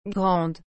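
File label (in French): "grande", French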